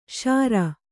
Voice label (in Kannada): "ಷುರು", Kannada